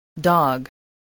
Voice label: "dog", spelling

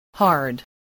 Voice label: "hard", English, spelling

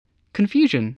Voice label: "confusion", english

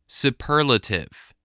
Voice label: "superlative", English

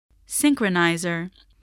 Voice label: "synchroniser", English

